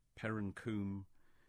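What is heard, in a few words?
"Perrancoombe" English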